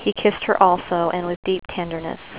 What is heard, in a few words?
woman